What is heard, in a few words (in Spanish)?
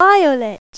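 "violeta", Spanish; Pipo-Aprende musica con Pipo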